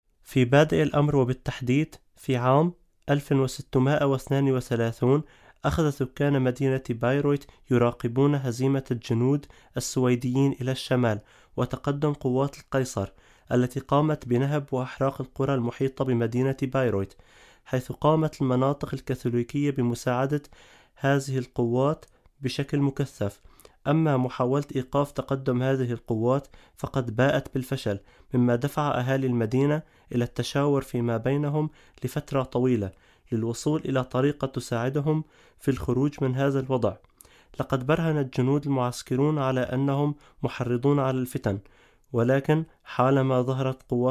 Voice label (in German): Arabisch